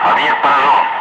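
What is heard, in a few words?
Russian speech, Ka-50 Wingman; rounds on target; DCS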